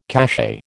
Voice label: "cachet", American